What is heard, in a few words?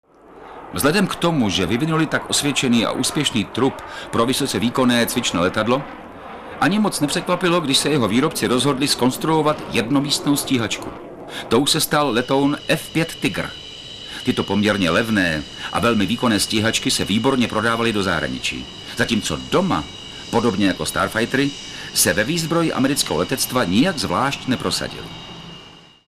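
Czech documentary, czech male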